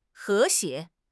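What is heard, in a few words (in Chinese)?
"和谐", Chinese; HSK6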